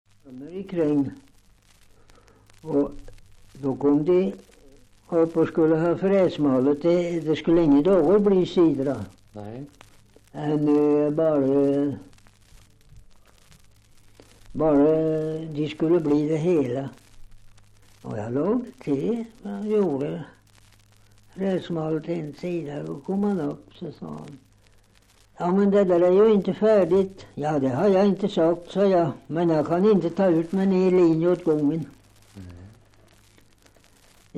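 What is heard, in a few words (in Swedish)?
Oppeby Åtvid Östergötland, svenska sagor dialekter; Folkminnen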